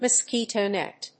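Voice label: "mosquito net", English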